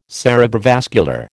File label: "cerebrovascular", American